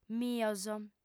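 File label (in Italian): "mios", lingua sarda, fem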